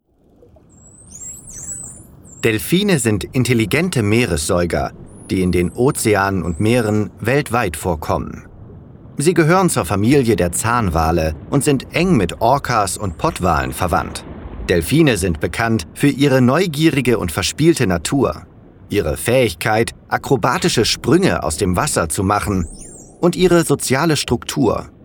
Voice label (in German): Doku Delphine